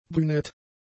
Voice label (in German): "brünett", German; adjectives